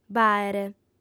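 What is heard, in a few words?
"b'àere", female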